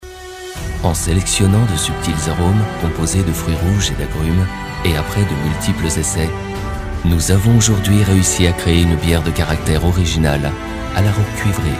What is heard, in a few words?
french narration